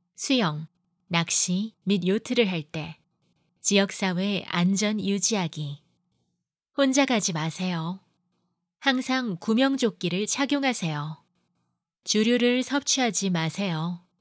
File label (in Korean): Korean Radio; Water Safety Community Announcement; Royal Life Saving Australia